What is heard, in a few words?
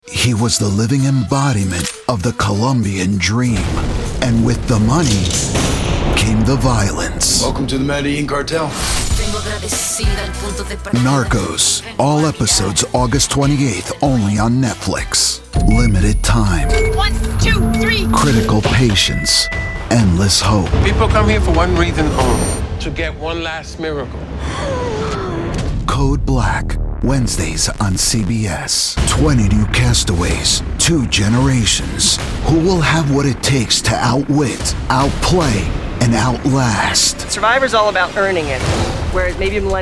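voice over ENGLISH Promo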